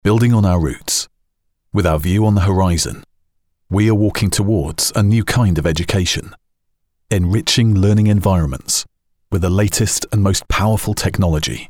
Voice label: narración inglés UK

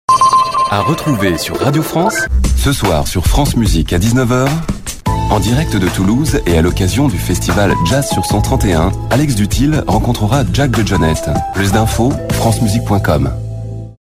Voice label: comercial francés

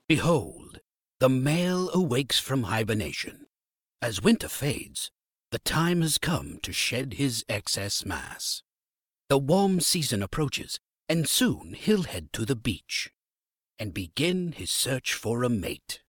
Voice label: narracion inglés USA

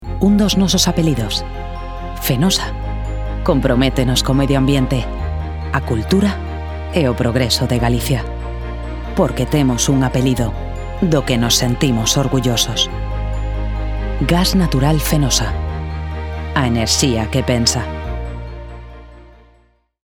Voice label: comercial gallego

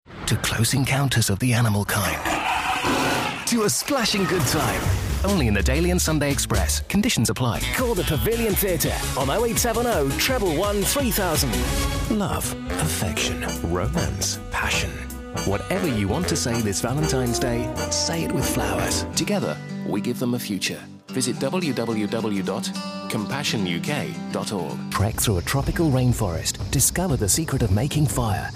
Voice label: comercial inglés UK

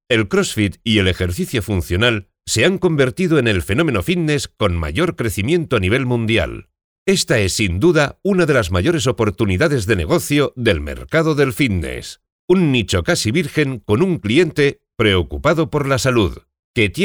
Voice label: narración

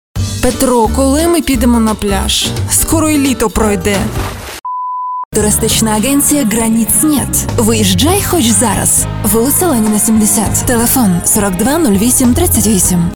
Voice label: comercial ucraniano

